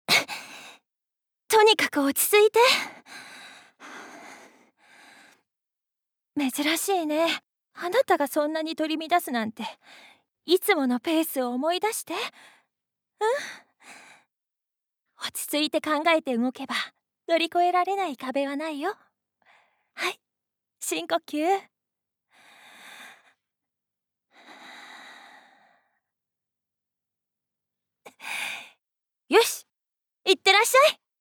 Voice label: foreigner